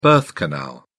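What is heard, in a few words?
"birth canal", British English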